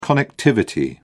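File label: "connectivity", British English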